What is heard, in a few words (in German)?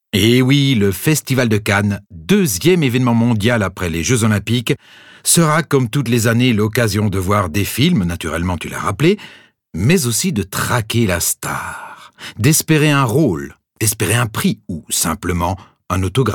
Reportage